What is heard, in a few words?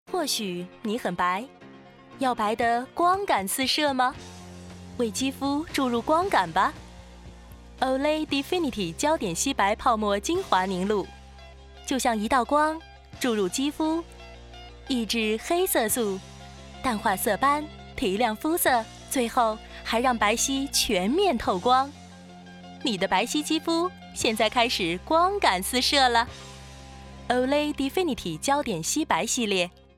guanggao, woman vioce